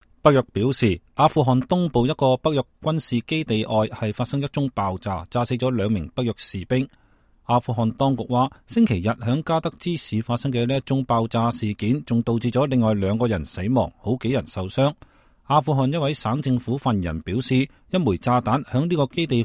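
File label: Cantonese News Afghanistan Blast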